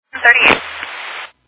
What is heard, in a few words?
Fire Dispatch